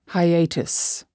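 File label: "hiatus", English word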